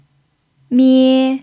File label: "mie1", chinese